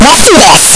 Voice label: "Yessir", Surfer, voices; Hedgewars